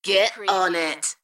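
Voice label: British female Get on it; beanstalk audio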